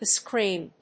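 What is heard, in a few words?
"the scream", English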